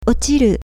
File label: "ochiru", Japanese